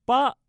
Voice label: single 8, male

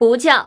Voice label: Woman

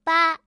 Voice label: single 8, female

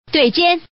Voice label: Woman dui

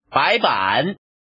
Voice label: man

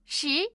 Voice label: single 10, female

